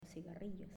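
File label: "Cigarillo", Spanish, female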